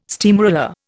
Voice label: "steamroller", Indian